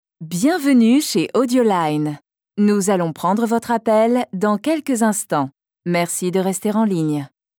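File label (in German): French Fremdtake Freundlich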